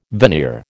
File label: "veneer", American